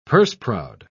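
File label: "purse-proud", British English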